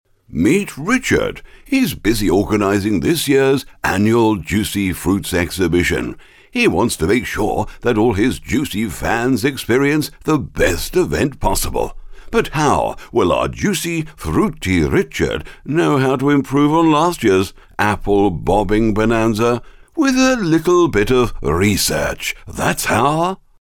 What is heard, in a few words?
AMUSING VOICE